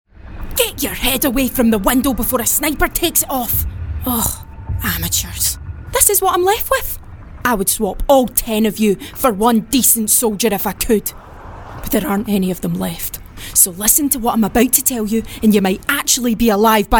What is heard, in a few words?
CHARACTER; voice reel